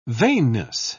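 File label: "vainness", British English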